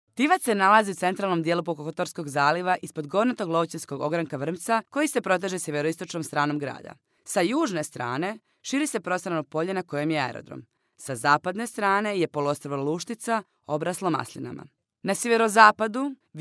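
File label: Montenegrin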